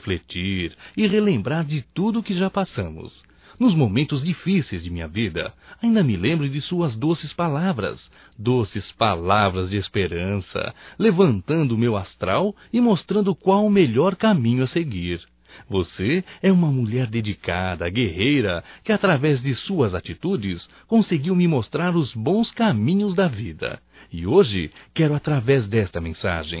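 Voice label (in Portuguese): ANIV Romântico Masculino; Aniversarios; Telemensagens 2017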